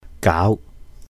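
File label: "gáau", Cantonese; do; stir; mix; 攪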